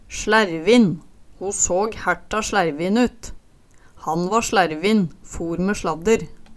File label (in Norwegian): "slærvinn", Numedalsmål